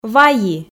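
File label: "вайи", Komi, female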